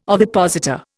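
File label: "ovipositor", Indian English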